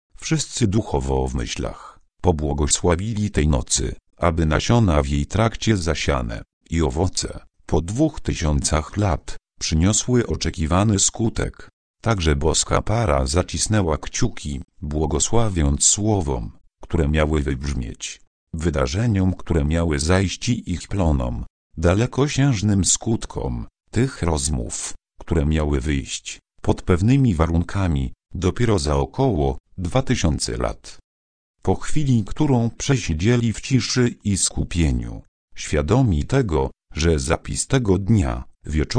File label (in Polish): rozdzial kult boskiej pary lektor